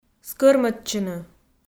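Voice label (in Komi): "скӧрмӧдчыны", Komi, female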